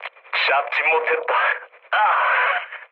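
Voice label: Korean bomb failure mood low; speech; War Thunder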